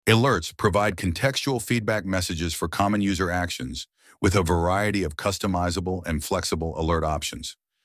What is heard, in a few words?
alerts voice